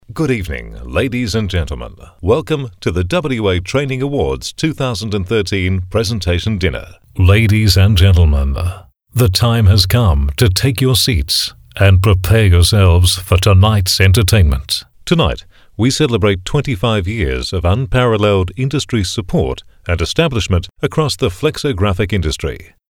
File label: Live Announcements